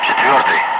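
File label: Russian calm; Ka-50; Wingman; DCS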